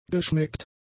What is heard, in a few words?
"geschmeckt", German; adjectives